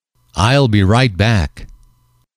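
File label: "ill Be Right Back", male, english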